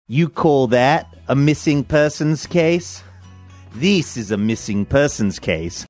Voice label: you call that missing persons case faux australian